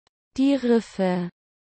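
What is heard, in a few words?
"die Riffe", German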